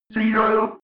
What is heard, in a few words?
robot voice zero fx